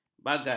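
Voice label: "bagan", Malay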